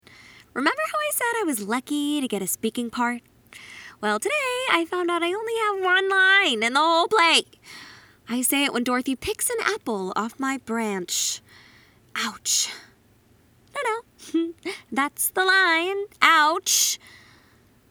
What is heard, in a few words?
Teen Adult, voice over; Wizard Of Flawz; Up A Tree